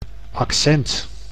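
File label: "accent", Dutch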